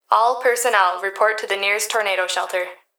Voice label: Emergency Human Female Voice Plant Security Dispatch All Personnel Report To The Nearest; Nearest Tornado